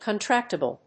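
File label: "contractable", English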